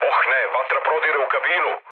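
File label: Serbian on fire mood low, speech; War Thunder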